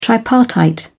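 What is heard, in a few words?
"tripartite", British English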